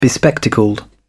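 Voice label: "bespectacled", British English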